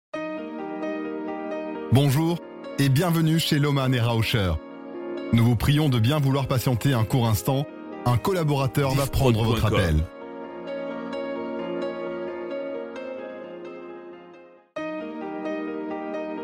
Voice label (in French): Messages Audiotel x4, telephonie svi; Lohmann et Rauscher